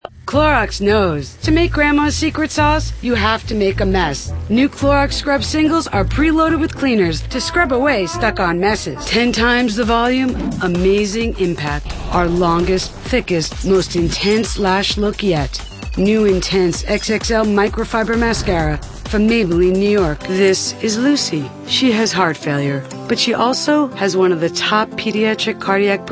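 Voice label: female, late 20s mid 30s, contemporary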